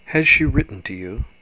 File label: RP intonation